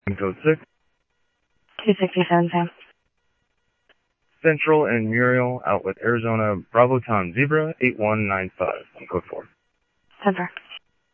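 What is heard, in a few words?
Phoenix police department, scanner; PHOENIX PD-200 A Phoenix PD; ThompsonPeakHigh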